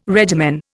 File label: "regimen", Indian